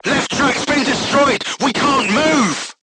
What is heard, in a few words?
voice message left track knocked down, crew tanks portuguese